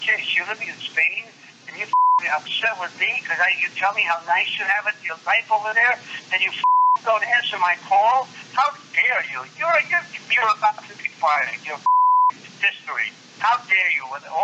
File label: VOICEMAIL TIRADE; ROBERT DE NIRO